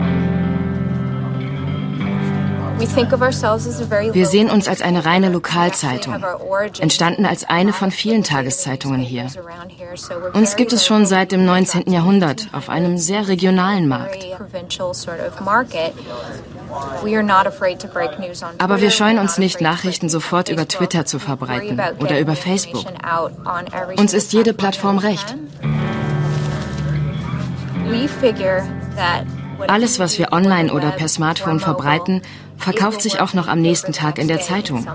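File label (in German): commentary, Neutral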